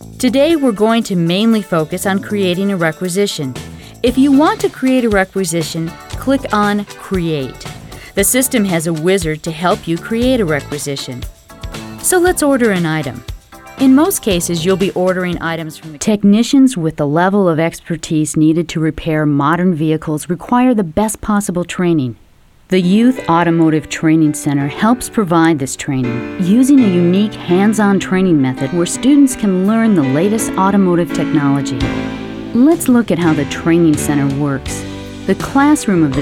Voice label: Narration